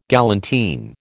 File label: "galantine", American